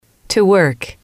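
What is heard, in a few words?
to work, English, female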